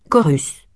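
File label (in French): "chorus" French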